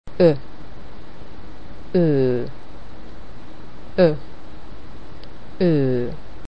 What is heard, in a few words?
Hungarian Vowels Comparison O umlaut Long O